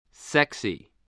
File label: "sexy", spelling